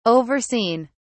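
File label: "overseen", English, British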